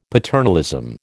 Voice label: "paternalism", American